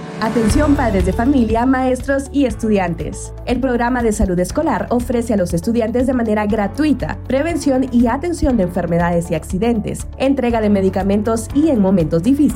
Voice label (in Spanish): SPOT DE RADIO; Salud escolar - Información General; Aprendoencasayenclase